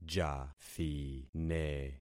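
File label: "jathine", concatenated syllables; Affixing experiment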